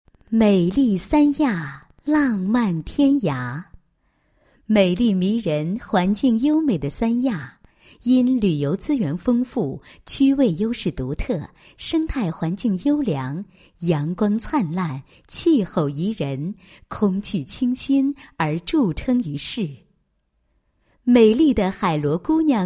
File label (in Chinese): 女 广播 科技馆 介绍; 海南螺贝科技馆